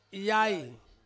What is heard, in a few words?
"yai", Ansus; father